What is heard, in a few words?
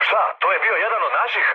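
Serbian player killed ally mood med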